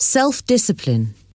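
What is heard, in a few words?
"self-discipline", English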